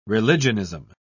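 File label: "religionism", British English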